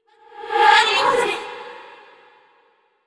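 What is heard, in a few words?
desperate, voice; VO yamiko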